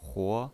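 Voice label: "huo", second tone, pinyin voice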